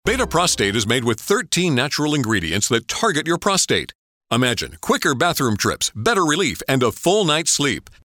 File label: Announcer